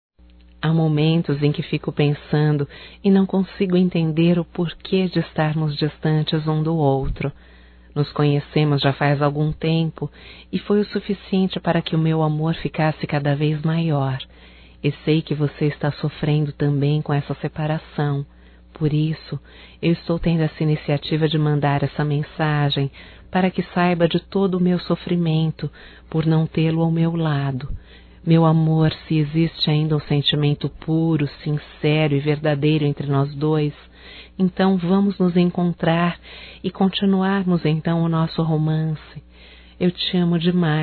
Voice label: SAUDADES RECONCILIACAO, Telemensagens, Só Voz, fem

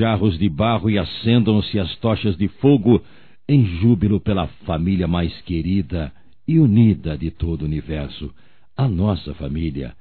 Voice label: BODAS DE PRATA M; Telemensagens 2017; Bodas de casamento

